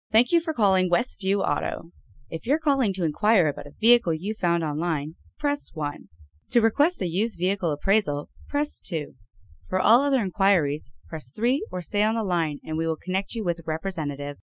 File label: IVR intro